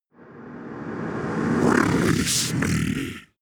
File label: demon dark voice reverse release me